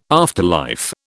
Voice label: "afterlife", British